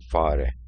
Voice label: Mouse in Turkish

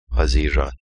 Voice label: June in Turkish

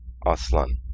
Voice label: Lion in Turkish

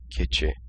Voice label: Goat in Turkish

